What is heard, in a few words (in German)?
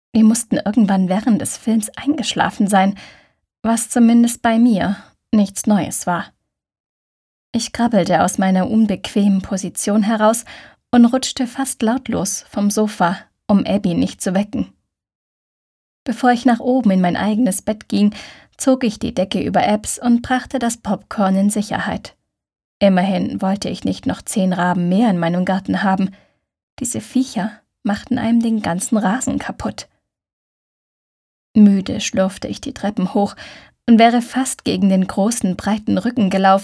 Dark Fantasy, hoerbuchsprecherinnen; Demo-Dark-Fantasy